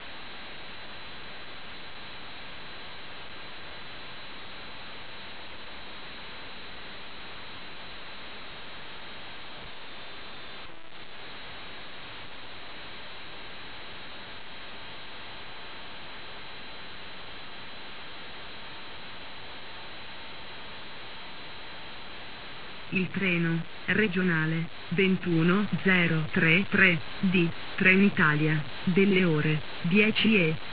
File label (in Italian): annuncio; OpenBVE